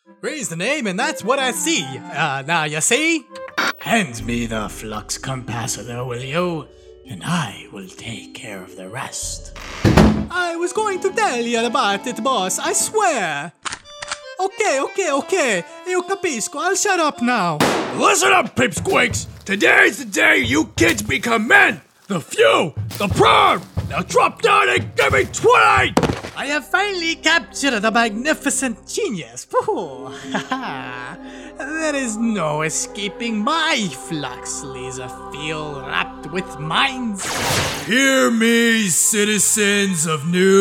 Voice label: Character

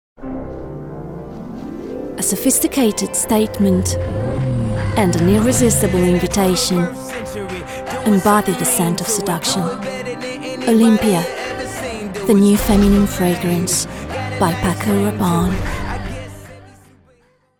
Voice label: Ad English; Paco Robanne

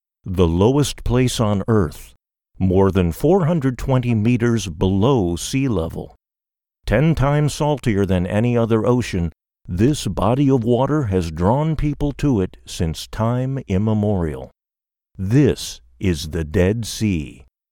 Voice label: Documentary Dead Sea

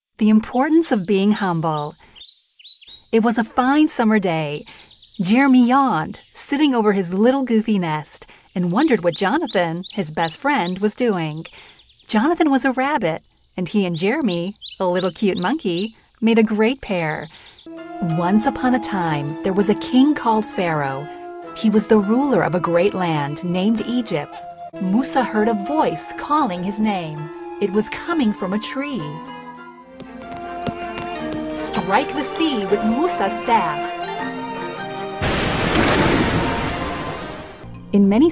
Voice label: Kids and Teens Narration

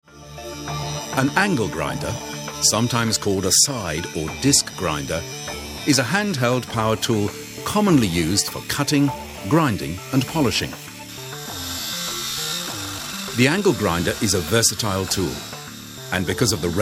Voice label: Industrial training

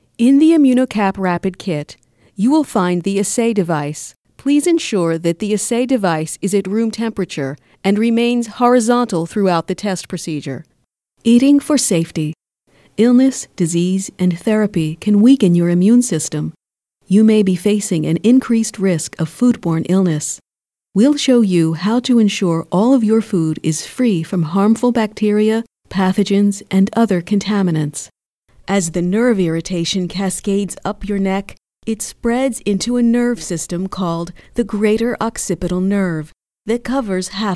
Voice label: Medical Narration

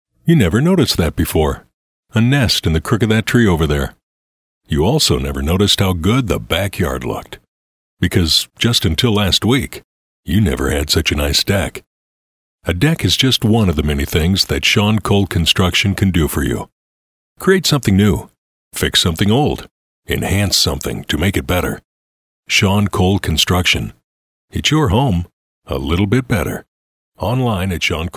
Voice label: Natural Speak

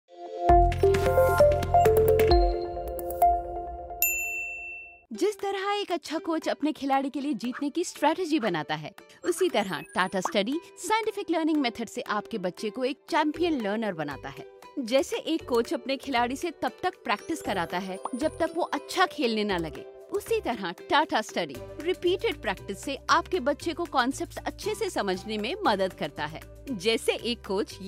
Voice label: hindi; Tatastudi

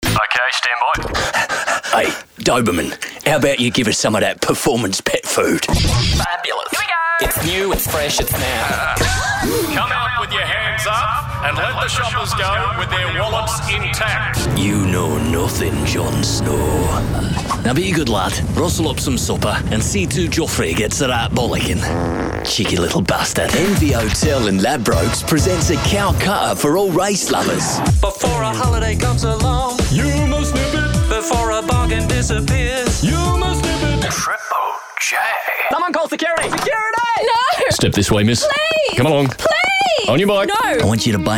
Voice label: ACCENTS

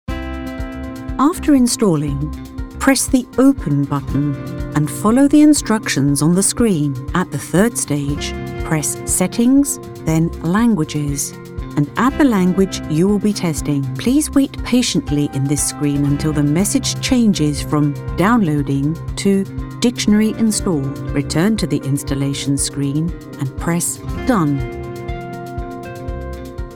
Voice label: Lovely Voice Tutorial Narration

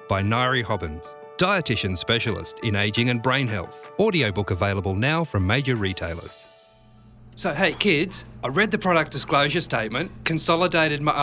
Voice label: VOICE OVER

